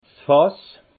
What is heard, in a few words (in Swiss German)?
"fass", alsace; vin